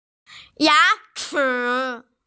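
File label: "ya chi", Mandarin Chinese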